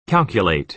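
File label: "calculate", American English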